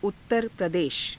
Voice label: "uttara pradesha", hindi